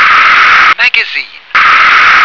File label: "magazine", English